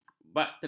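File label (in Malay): "bakteria", Malay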